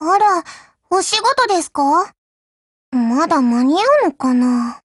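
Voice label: HELLO, Japanese; GF Alarm; UMP45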